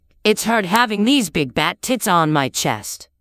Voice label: vocodes